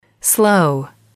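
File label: "slow", English, female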